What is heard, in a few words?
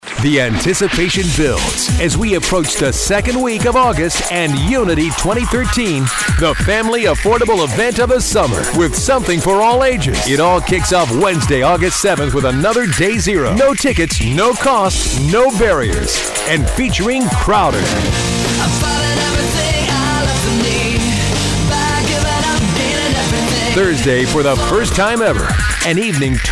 Radio Spot; Unity 2013; JQ 99